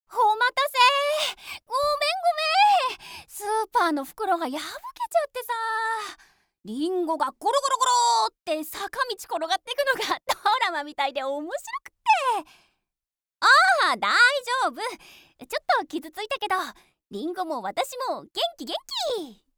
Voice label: cheerful girl